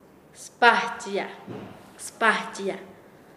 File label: Nasa Yuwe; bajar algo; paez